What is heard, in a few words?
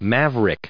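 "maverick" English, American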